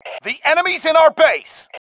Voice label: enemy in base, MALE